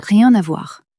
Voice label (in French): "rien à voir", French